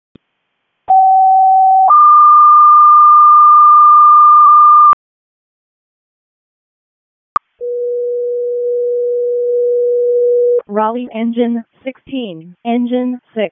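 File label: Raleigh Fire Department ALERT, p25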